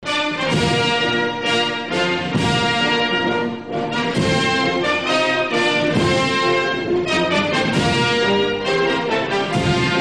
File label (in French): Lecture en Francais